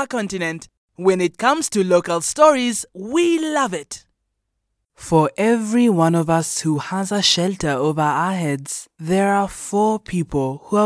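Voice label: English South African F Commercial; accent of south africa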